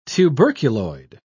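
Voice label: "tuberculoid", British English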